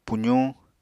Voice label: "puzuñ", Apatani